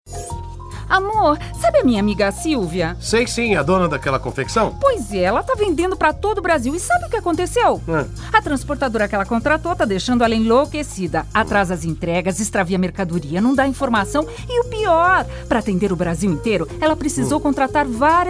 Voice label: spot moda; braspress

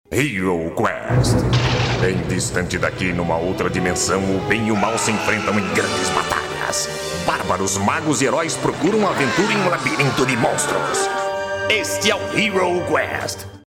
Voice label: CARICATO; HERO QUEST

